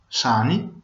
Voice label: goodbye, Venetian